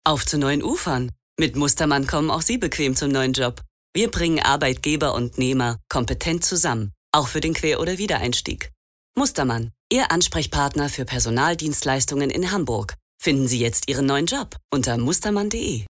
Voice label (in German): Werbung; Synchron